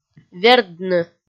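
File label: "вердны", Komi, female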